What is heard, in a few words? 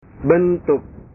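"bentuk", Indonesian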